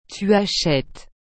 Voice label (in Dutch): "tu achetes", frans; acheter